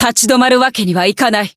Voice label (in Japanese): Voice encount jp; milla